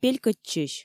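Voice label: "пелькӧдчысь", Komi, female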